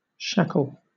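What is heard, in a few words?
"shackle", British English; pronunciations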